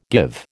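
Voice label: "give", American English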